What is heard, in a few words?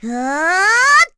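Vox Casting, Korean; Chrisha; kingsraid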